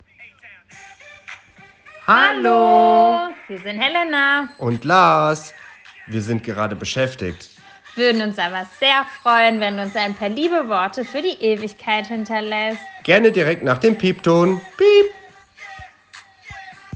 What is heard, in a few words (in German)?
ansage